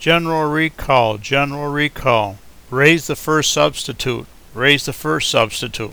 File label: general recall raise flag, Male English